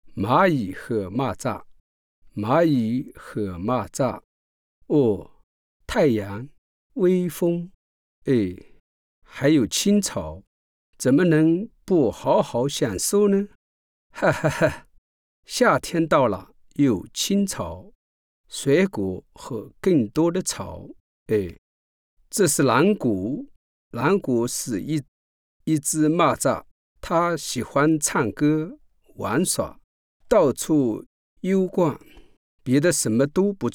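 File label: chinese male narration; voice demos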